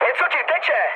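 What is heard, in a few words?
tank damaged, Czech, mood med; speech; War Thunder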